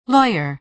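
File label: "lawyer", American English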